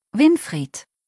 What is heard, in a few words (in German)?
"Winfried", deutsch, jungennamen